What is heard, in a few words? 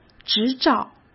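"执照" Mandarin Chinese; hsk; chinese-flashcards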